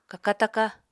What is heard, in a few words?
"kaka-taka", Apatani